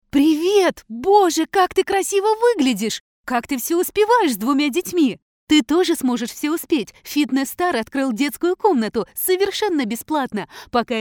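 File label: Russian female voice over, character; russian voice over talent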